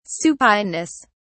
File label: "supineness", en uk